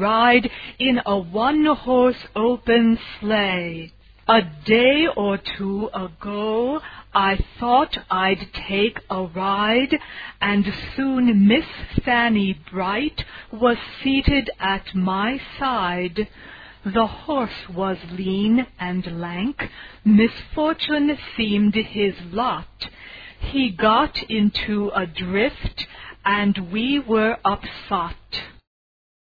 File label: pronunc jingle bells